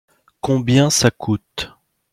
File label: pronunciation fr combien ca coute